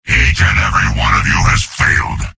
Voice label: soldier jeers; source engine; tf; mvm